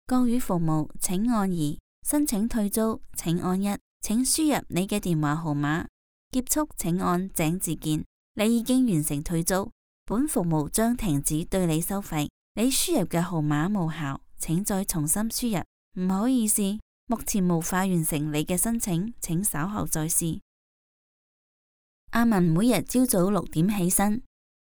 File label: chinese cantonese commercial